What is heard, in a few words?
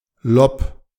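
"Lob", German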